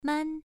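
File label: "man", tone 1, pronunciation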